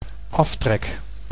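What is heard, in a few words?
"aftrek", Dutch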